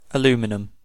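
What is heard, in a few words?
"aluminum", British English; pronunciations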